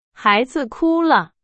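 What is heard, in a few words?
Chinese, example sentence; hsk2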